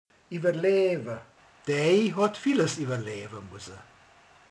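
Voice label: "átél", Hungarian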